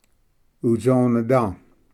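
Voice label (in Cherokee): "utsoneda", Cherokee word, male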